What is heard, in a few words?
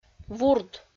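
"вурд", Komi, female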